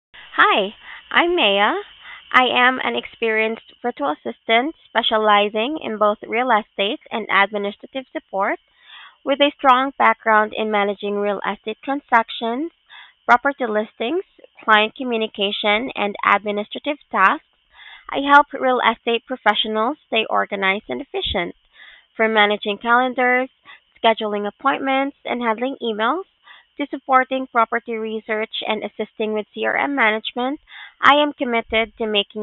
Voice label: Voice Recording, Self Introduction; Brivity Virtual Assistants; Real Estate Administrative Assistant for Keller Williams